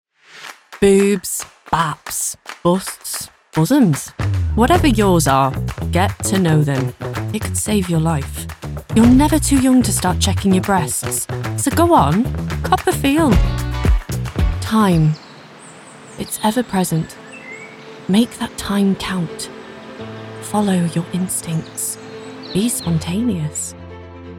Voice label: Commercial